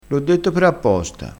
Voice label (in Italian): "per apposta", crespinese